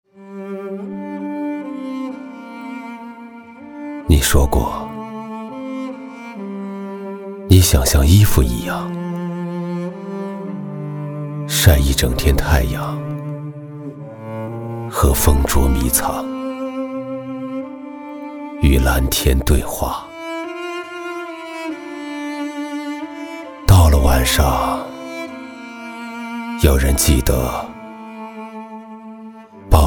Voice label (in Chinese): 男, 独白, 用情至深; 用情至深·走心也走肾